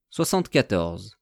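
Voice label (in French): "soixante-quatorze", French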